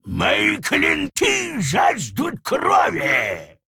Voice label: Male Troll Play; Hearthstone; датамайн обновления 5.0

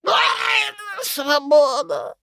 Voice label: Male Human Death; Hearthstone; датамайн обновления 5.0

